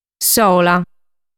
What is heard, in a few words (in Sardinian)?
"Sola", Sardu